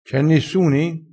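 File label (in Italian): "c e nissuni", vocabolario dialettale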